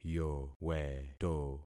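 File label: "yoweto", concatenated syllables; Affixing experiment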